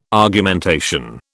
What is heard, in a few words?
"Argumentation", British